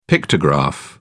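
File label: "pictograph", British English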